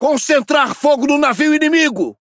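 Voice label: priority target, Brazilian Portuguese; speech; World Of Warships; PT BR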